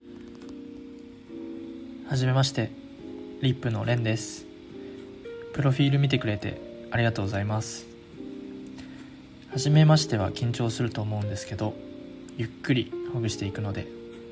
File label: voice, therapist